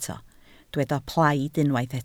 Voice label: "plaid", Welsh